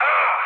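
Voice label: damaged pilot, mood low, Polish speech; War Thunder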